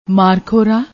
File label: "maarkhora", Punjabi; ਮਾਰਖੋਰਾ